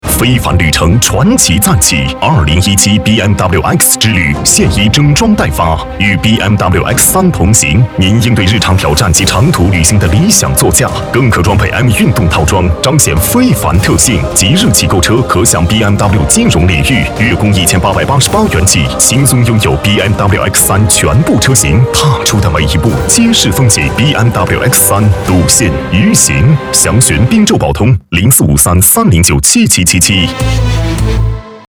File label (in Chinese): 广告 汽车 激情, 男国; 宝马BMWx3广告